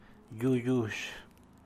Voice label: "yuu yush", San Mateo Macuilxochitl Zapotec, sandy land; tierra arenosa